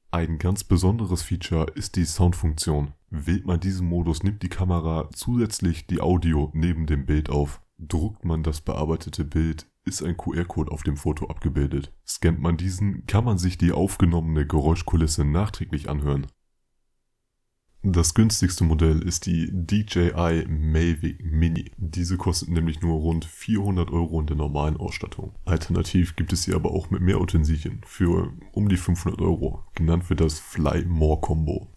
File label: German, Male